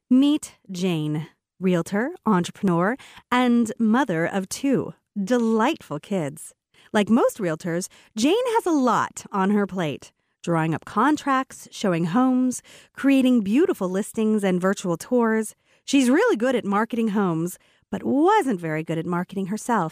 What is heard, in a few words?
voice, web video; realtor dot com